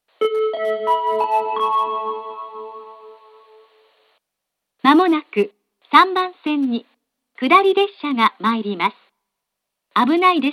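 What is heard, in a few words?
platform 3 approaching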